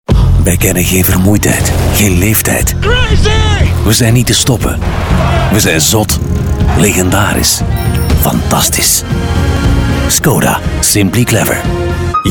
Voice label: Commercial Flemish; GoLocalise